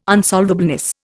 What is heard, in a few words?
"unsolvableness", Indian